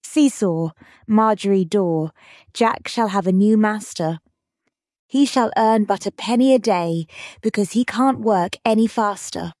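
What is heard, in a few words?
See Saw Margery Daw, female British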